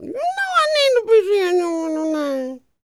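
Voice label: dialog, female spoken; ILIO; Vocal Planet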